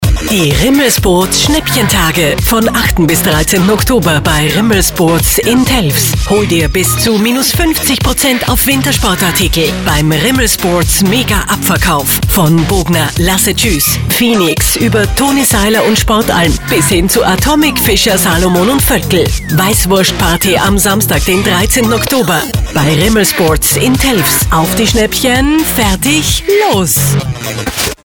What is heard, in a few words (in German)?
Radiospot; RIML Sports; Schnäppchentage